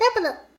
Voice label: double, vocal; Techmino